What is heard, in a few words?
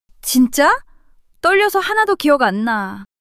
fluster, monologue